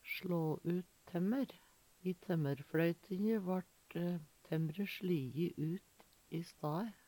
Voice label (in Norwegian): sla ut temmer, Numedalsmål; Uttrykk; I temmerfLøytinje vart temmre sLiji ut i stae.; Veggli